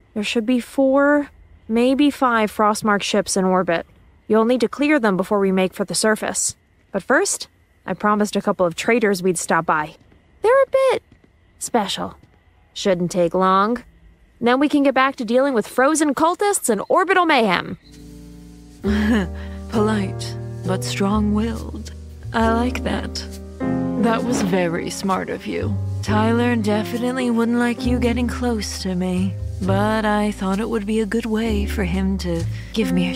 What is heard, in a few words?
Voiceover, Animation; New York; Character Demo